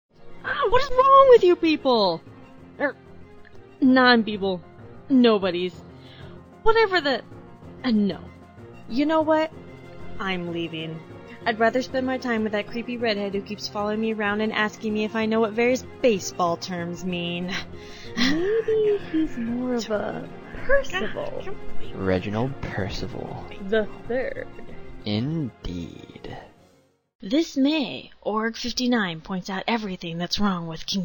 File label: Commercial; Marluxia, Larxene, and Roxas - Everything That's Wrong; No, we're not going to tell you what this is about. It's more fun just to listen to Roxas bitch.